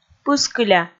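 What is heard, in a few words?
"пыскыля", Komi, female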